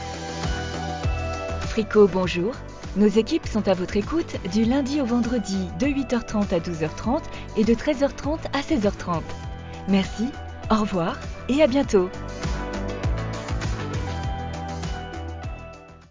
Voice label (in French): attente tel Fermeture VO; FRICO